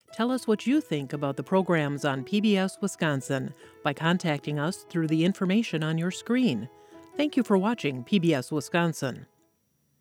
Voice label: Viewer Comments, male; Promotions; Rebrands

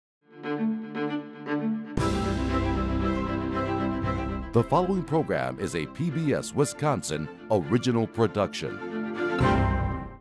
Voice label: Male; PBS WI

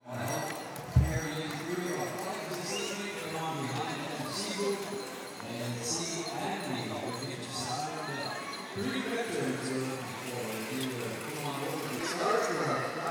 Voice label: PW MC Distant; Menominee PowWow 4 Aug 2023; Menominee Homecoming Powwow August 2023

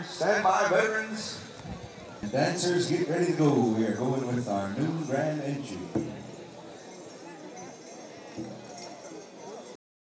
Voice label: announcer veterans, powwow; Menominee Pow Wow 5 Aug 2023; Menominee Homecoming Powwow August 2023

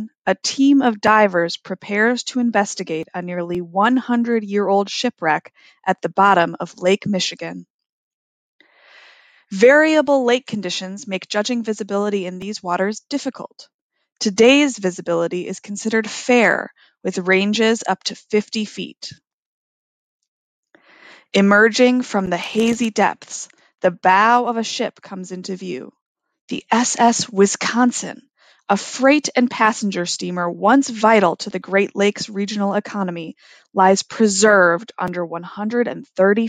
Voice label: Informative Narration; Shipwrecks

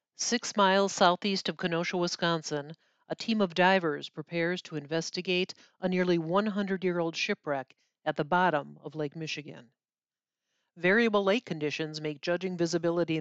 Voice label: narration; Shipwrecks

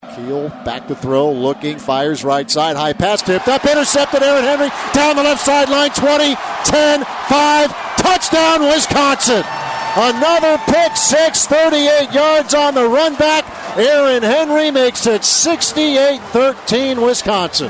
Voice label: Football Radio; AARON HENRY PICK SIX wis 68 ind 13; UW Athletics; Bucky Badger

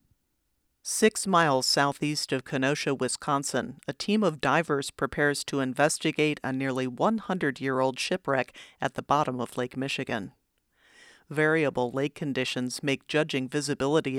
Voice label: Narrator; Shipwrecks

